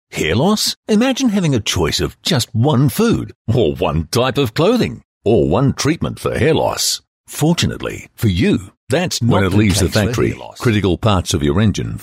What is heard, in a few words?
Soft Sell